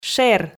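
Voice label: "шер", Komi, female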